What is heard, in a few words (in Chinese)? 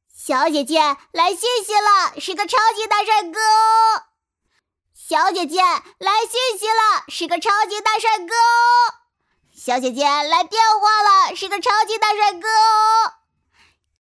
正太, 男童声